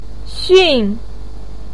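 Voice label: "xun4", Mandarin pinyin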